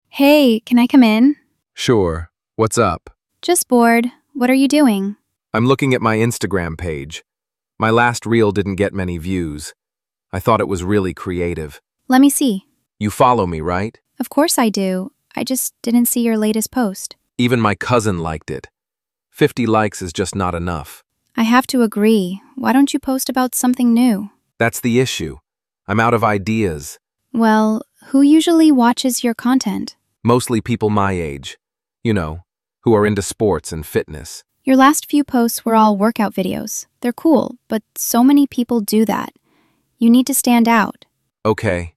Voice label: Conversation; go viral